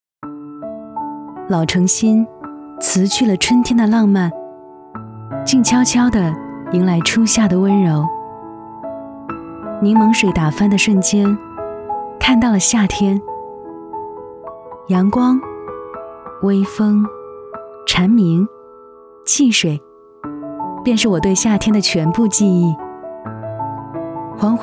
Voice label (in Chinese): 国语女-旁白类; 春天的浪漫